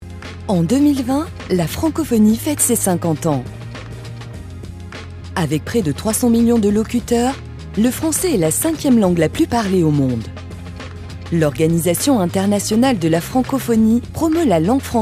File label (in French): Medley Corporate, voix off feminine